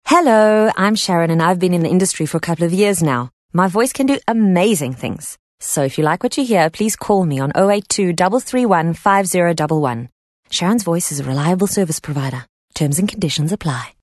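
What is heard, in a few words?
English Conversational South African Neutral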